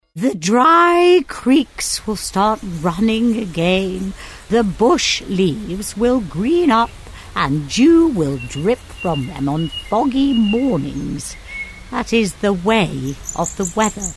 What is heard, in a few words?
mature character